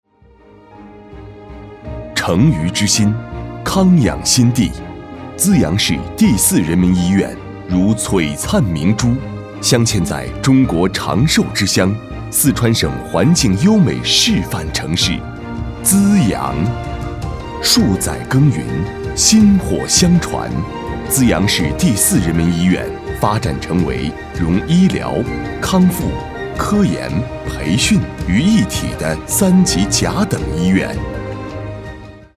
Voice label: 男 专题 资阳市第四人民医院

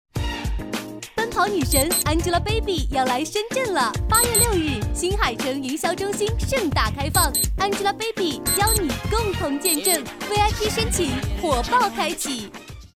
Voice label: 广告 活力年轻; 奔跑女神

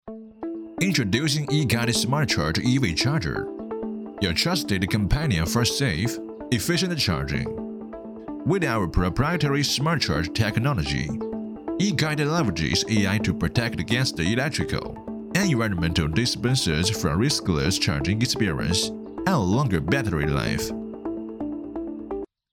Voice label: 男 英文 科技广告; E-KITE

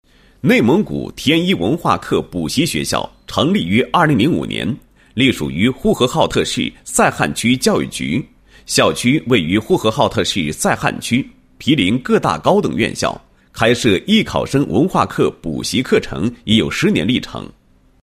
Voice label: 男; 教育机构; 天一文化课补习学校

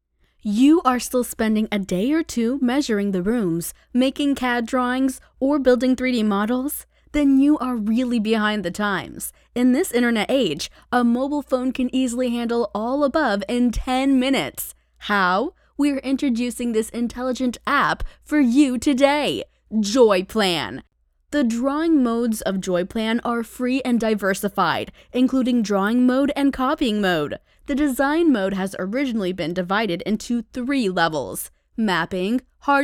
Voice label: 互联网 APP 宣传解说 英语女声

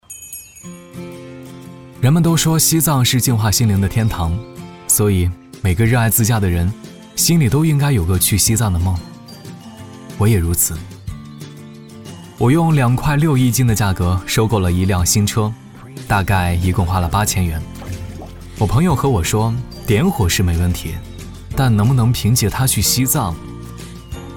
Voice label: 男 旁白 温暖; 去西藏的梦

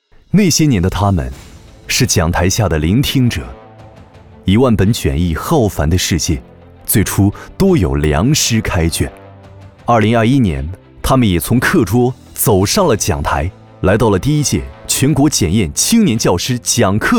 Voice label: 男 年轻专题 讲课比赛总决赛

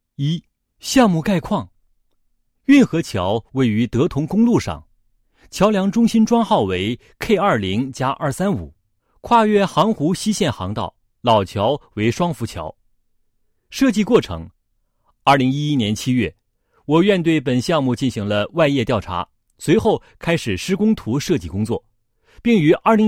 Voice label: 男 项目 公路运河桥梁项目介绍

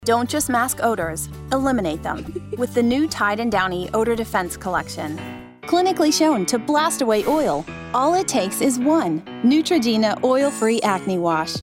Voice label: 外籍英女-宣传片-轻快语调

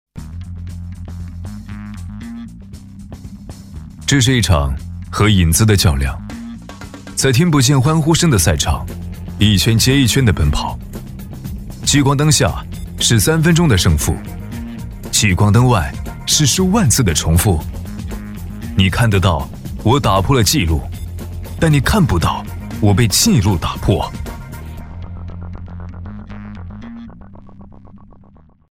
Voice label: 男-广告--大气--冷酷; 我就是亚洲速度

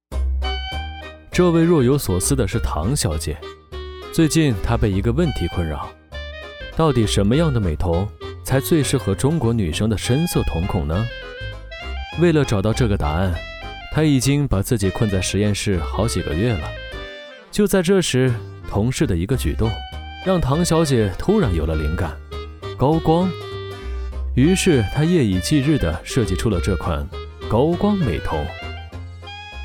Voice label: 男

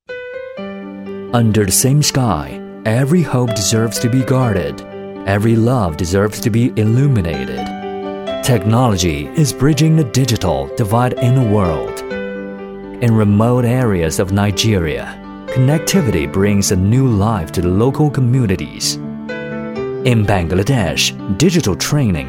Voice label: 英男 专题 温馨

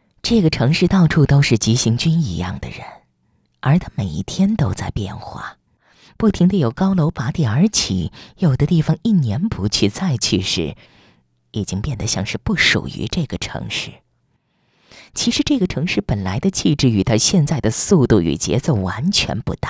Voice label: 女 有声期刊 优雅知性

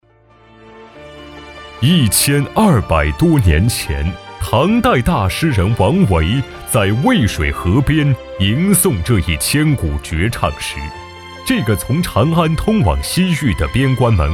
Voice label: 专题 大气浑厚 丝绸之路敦煌

